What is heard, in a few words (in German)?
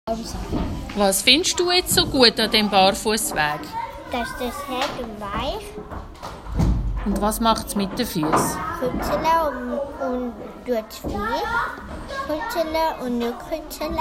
Kinder erzaehlen